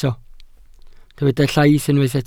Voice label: "llaith", Welsh